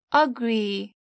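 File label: "agree", English; properties of objects new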